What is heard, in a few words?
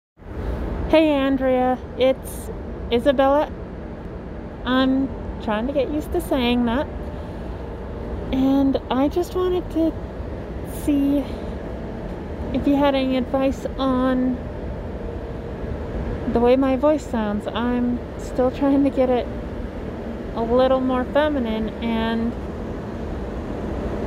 Normal, Voice feminization training